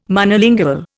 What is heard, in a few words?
"Monolingual", Indian